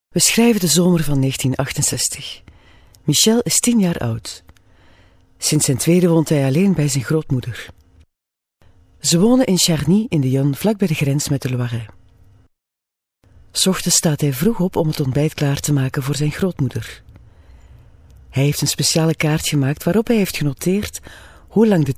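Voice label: flemish female narration; dutch; voice demos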